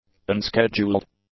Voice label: "unscheduled", english; adjectives